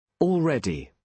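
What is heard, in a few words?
"already", British English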